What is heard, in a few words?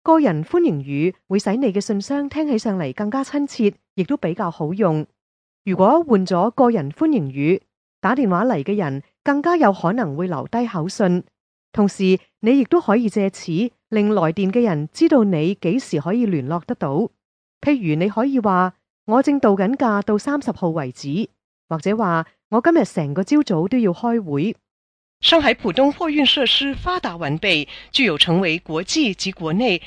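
ZH Can Corporate, Cantonese Chinese; GoLocalise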